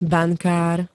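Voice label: "bankár", Slovak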